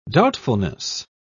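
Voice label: "doubtfulness", British English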